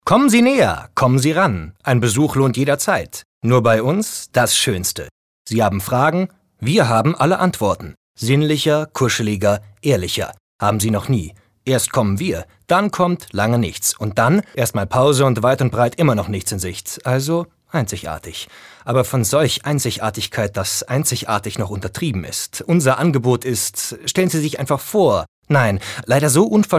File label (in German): Schauspiel Dynamisch